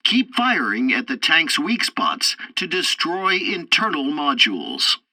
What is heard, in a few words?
tutorial tank arcade third target keep shooting, english; War Thunder